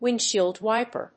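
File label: "windshield wiper", English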